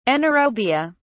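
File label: "anaerobia", British English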